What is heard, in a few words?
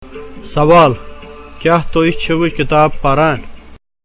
Spoken Kashmiri, Question: Are you reading a book?; Reply: No, I am not reading a book.